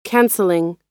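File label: "canceling", American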